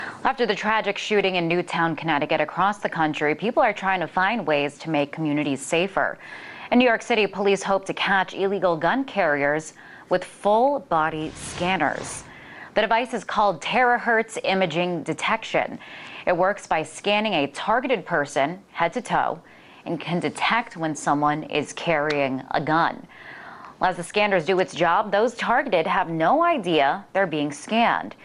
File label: NYC gun slave scanner